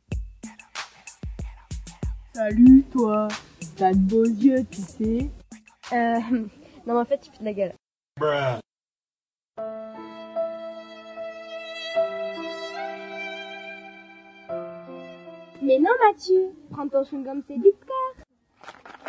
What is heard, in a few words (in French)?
Pub musique